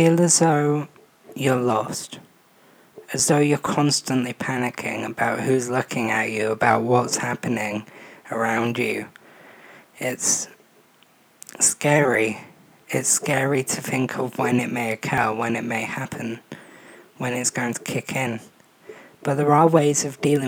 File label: anxiety voxpop